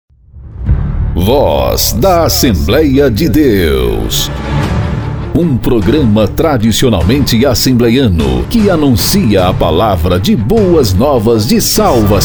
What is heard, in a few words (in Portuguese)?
Vinheta Falada